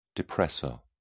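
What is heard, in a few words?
"depressor", English, British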